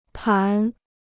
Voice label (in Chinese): "盘", Chinese